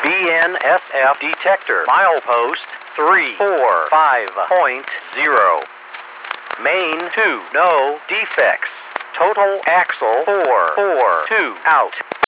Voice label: defect detector